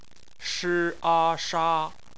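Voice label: "sha" bopomofo, Chinese; phonetics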